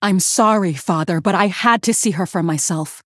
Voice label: Female Human; Cariel

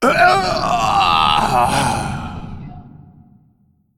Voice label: Female Ancient Death

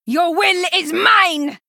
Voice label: Female Troll Attack

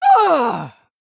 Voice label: Female Venthyr Death

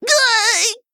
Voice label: Male Gnome Death

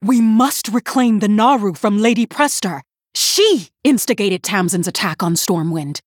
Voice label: Female Human In Game Turn

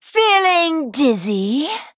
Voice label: Female Human Attack

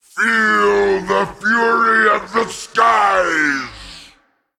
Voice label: Male Titan

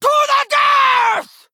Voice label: Female Fel Orc Attack

